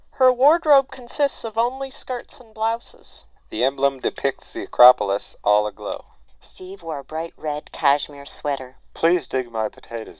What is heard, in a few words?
Mic Speech; Microphone Signal